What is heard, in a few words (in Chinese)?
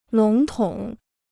"笼统", Chinese